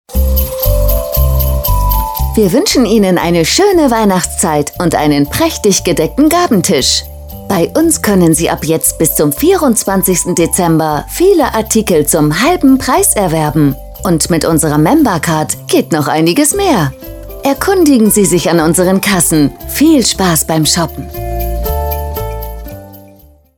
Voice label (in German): Xmas Beispiel weibliche Stimme Musik gesprochen; MM Germany